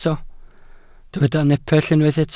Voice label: "nepell", welsh

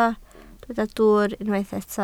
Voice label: "dwwr", Welsh

